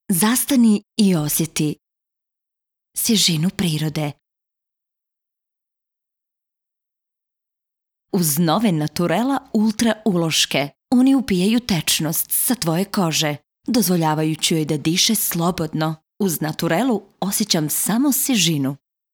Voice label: REKLAMA VO mono; NATURELLA